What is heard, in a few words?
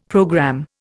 "programme", Indian; Dictionary